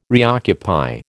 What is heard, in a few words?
"reoccupy", American